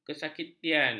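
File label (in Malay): "kesaktian", Malay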